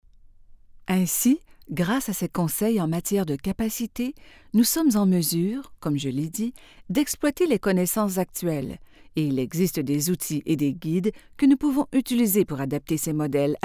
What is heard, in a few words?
french canadian medical narration